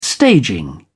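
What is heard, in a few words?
"staging", British English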